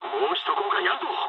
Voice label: bomb failure mood low, speech jp; War Thunder